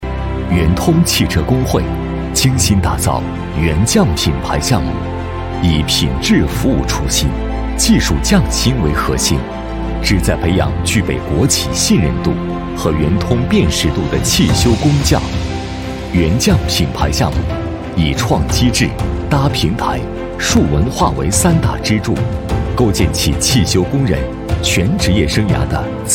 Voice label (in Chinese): 男 汽车 大气 科技感; 元通汽车